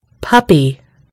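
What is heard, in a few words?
"puppy", British English; gcompris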